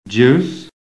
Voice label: "JUICE", phonetic